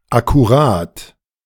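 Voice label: "akkurat", German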